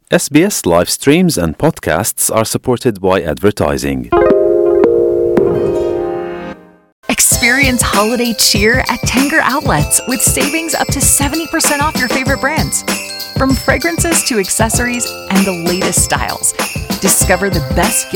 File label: gujarati newsflash; SBS Gujarati